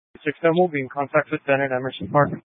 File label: Police Department Dispatch; Grand Junction